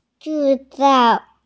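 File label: "zhi4zao4", Mandarin Chinese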